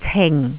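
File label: "cing4", Jyutping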